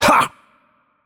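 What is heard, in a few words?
French attack heavy voice; bloom minigame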